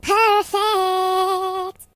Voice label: "Perfect", voices, Singer; HedgewarsMobile